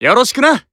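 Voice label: Voice Emotion Greet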